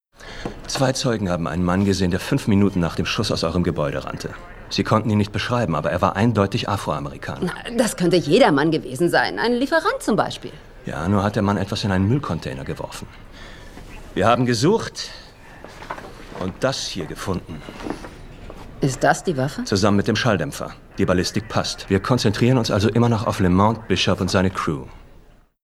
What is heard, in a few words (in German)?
Synchron; GoodFight